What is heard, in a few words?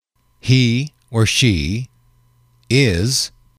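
"he she is", English, male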